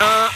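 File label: "uh", male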